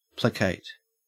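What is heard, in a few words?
"placate", Australian English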